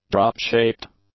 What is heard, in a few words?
"drop-shaped", English; adjectives